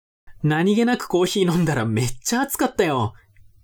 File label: man